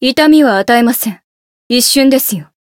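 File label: limit break, Japanese; felmina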